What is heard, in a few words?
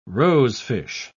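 "rosefish", British English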